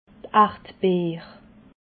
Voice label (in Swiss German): "ardbeer", alsace